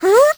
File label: vo confused; Crypt of the NecroDancer